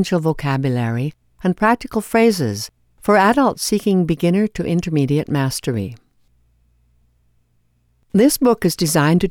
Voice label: Learn Spanish in Your Car

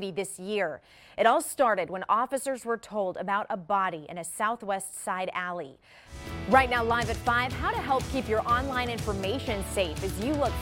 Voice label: News Compilation

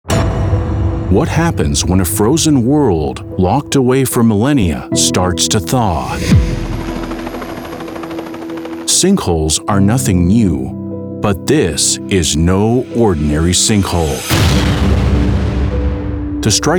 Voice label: Com Doc Narration

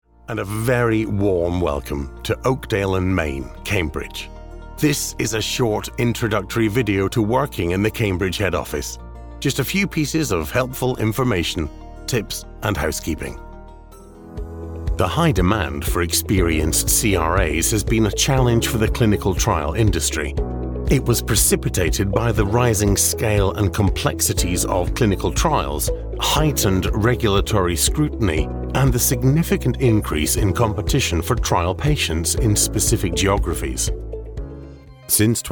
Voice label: CORPORATE DEMO

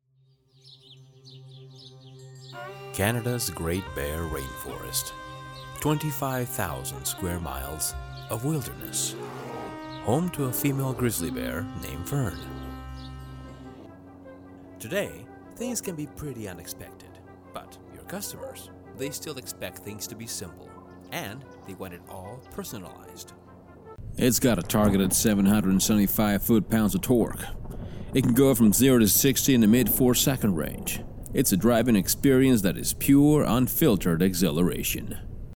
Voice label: voiceover

